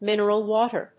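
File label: "mineral water", English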